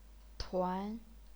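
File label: "tuan", chinese pinyin, second tone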